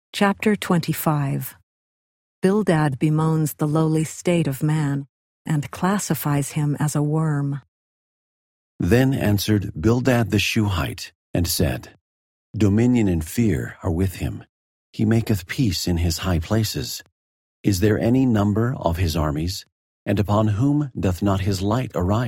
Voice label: job male voice; job 25; the old testament

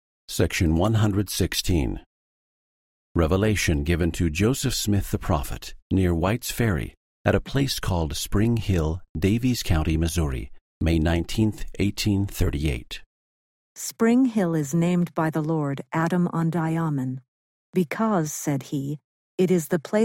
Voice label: section female voice eng

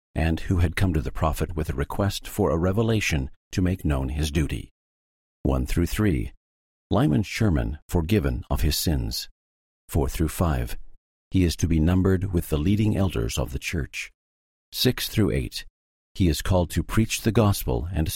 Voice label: section female voice eng

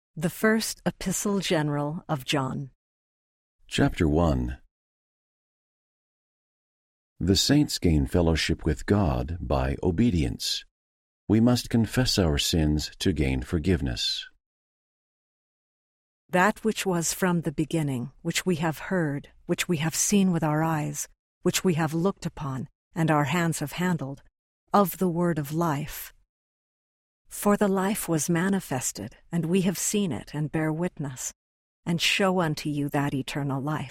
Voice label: female voice, eng; john 01; the new testament

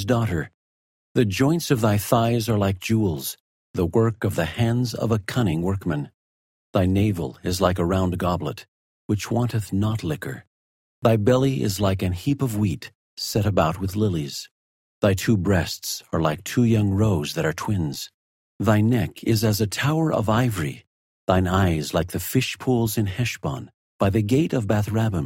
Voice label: the song of solomon male voice; the old testament

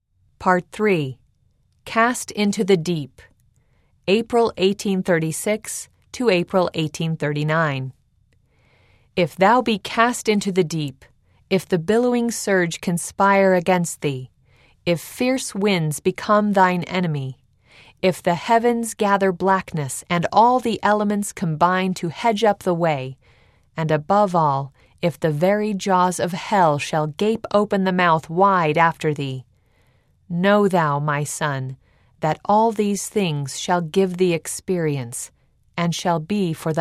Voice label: female voice; part 3 cast into the deep; eng

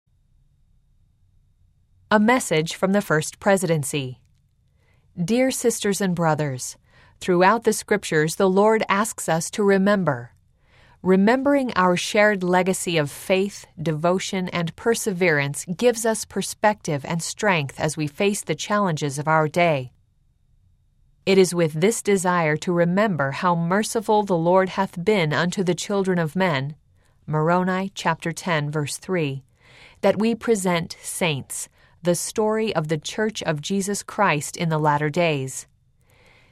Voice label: female voice; message from the first presidency; eng

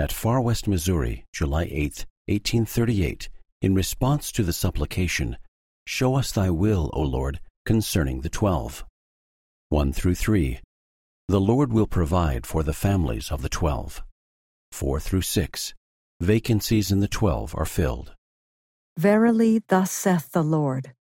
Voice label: section female voice eng

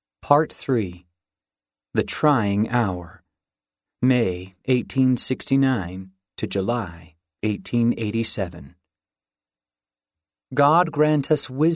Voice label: male voice; part 3 the trying hour

